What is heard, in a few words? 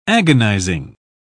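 "agonising", British English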